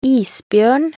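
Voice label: "isbjørn", Norwegian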